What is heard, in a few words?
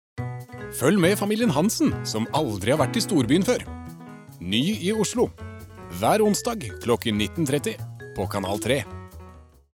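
Norwegian promo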